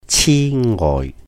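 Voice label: "chì ngòih", Cantonese; foolish, silly; 癡呆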